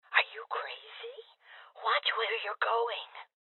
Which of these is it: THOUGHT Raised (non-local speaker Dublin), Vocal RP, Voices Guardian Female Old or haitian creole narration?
Voices Guardian Female Old